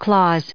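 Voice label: "clause", US English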